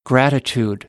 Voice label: "gratitude", American; AmericanBritish